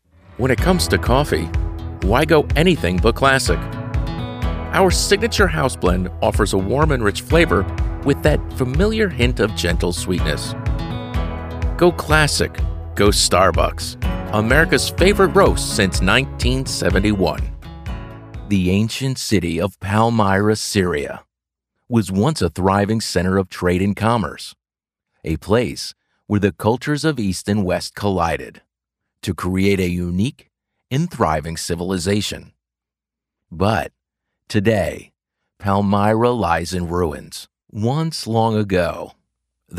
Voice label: us male; lektoring